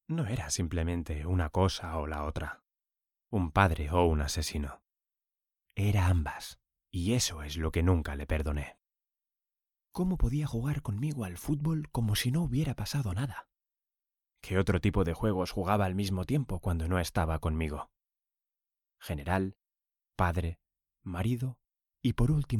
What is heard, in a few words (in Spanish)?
Audiolibro Spanish